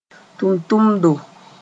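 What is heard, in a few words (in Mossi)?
"tʋntʋmdo", Mooré; mission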